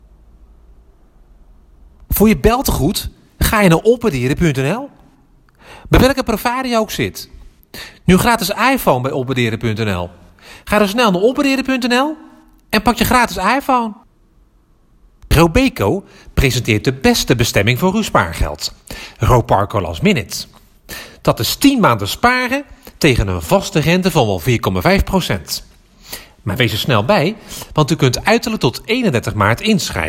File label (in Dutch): Dialecten commercial